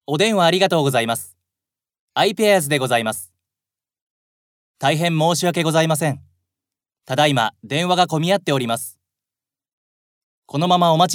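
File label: japanese male narration; voice demos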